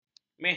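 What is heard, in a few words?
"meh", Malay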